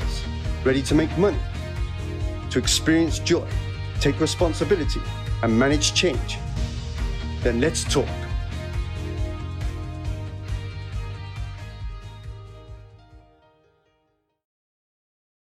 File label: Sales Promo world wide, Uplifting Sales Promo World Wide Health Product; HEALY